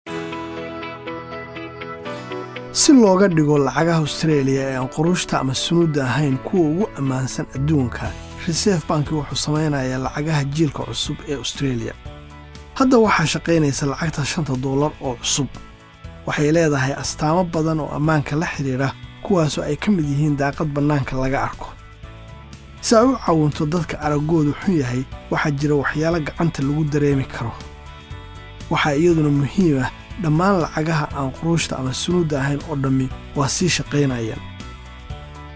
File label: radio ad translation somali